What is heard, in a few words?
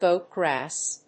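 "goat grass", English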